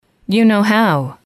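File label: you know how, female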